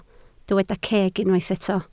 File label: "ceg", Welsh